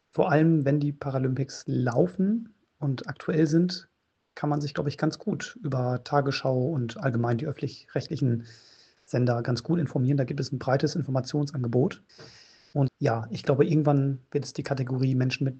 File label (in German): Audio Umfrage